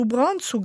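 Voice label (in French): le festin, Moita Verde (nord)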